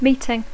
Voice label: "meeting", English